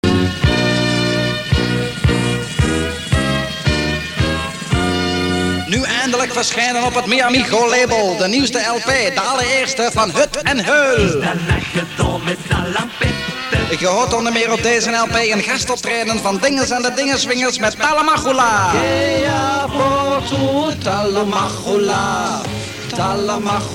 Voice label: commercial